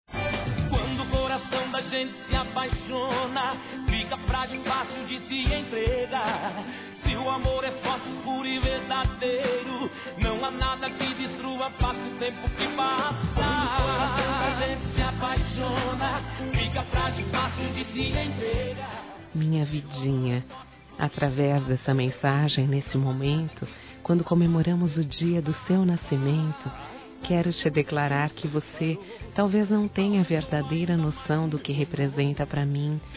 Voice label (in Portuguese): ANIV. ROMANTICO F